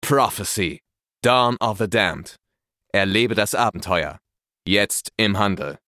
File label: Trailer